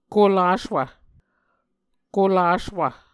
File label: "kolaswv", Muscogee